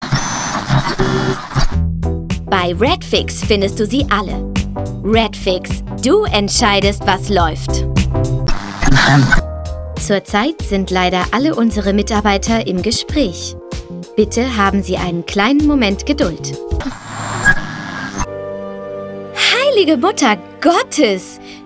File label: German, female